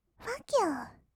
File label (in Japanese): "ふぁっきゅー", kawaii voice; ASMR; 1200 kawaii voice!!; 音ゲー